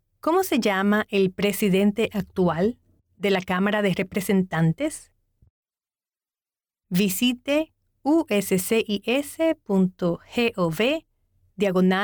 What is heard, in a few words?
Spanish